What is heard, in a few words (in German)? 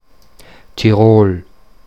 Tirol Standard